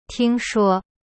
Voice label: "听说" ting shuo, chinese; cyberchinese